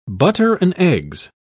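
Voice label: "butter-and-eggs", English, British English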